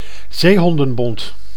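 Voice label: "zeehondenbont", Dutch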